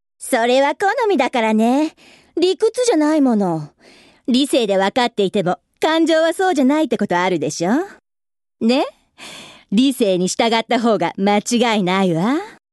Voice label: female voice; セリフ